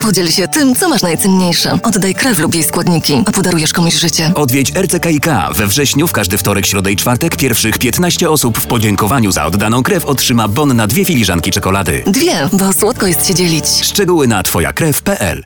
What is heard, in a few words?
spot radio